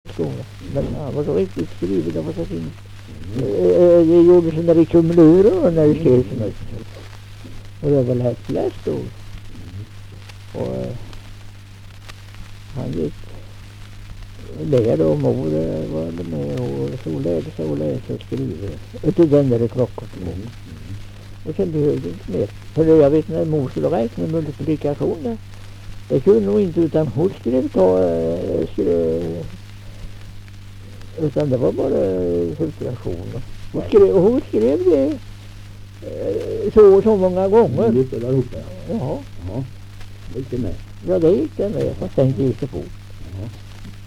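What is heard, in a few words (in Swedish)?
Nysund Närke, dialekter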